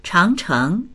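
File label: "chang2cheng2", Mandarin Chinese; 长城; Chángchéng; 長城、万里の長城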